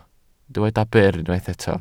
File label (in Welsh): "byr", Welsh